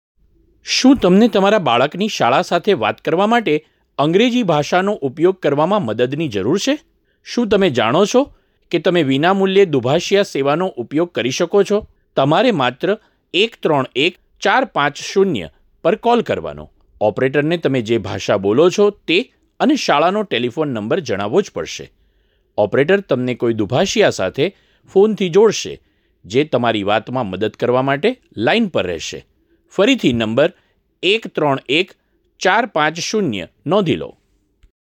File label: gujarati; audio message